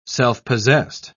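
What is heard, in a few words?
"self-possessed", British English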